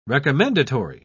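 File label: "recommendatory", British English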